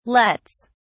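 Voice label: "lets", British English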